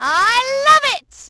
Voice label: love it, Brit; Splashdown; XBOX